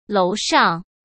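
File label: "楼上", Mandarin Chinese; hsk; chinese flashcards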